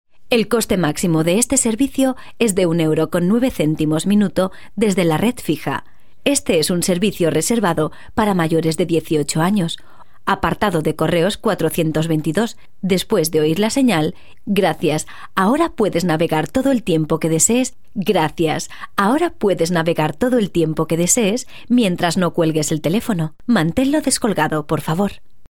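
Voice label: ES F commercial, Spanish, female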